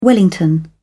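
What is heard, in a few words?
"wellington", British English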